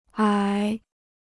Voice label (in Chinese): "矮", Chinese